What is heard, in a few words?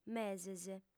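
"meses", female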